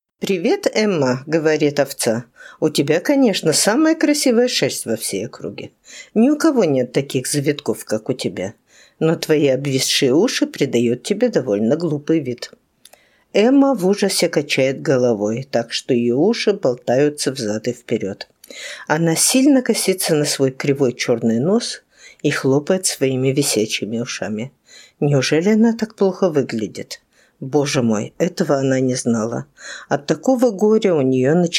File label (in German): russisch